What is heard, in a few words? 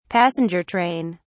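"passenger train", British English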